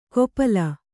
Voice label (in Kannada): "ಗೋಪಾಲ", kannada